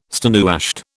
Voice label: "stonewashed", British

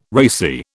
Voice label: "racy", British

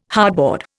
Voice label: "hardboard", Indian

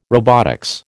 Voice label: "robotics", American

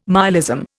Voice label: "myalism", Indian

